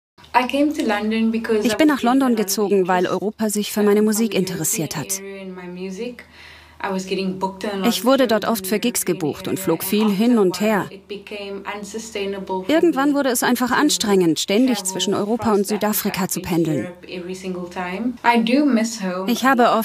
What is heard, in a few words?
voiceover doku; arte; girlhood locker cool